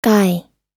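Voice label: "guy", English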